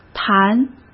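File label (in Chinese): "痰", Mandarin Chinese; chinese-flashcards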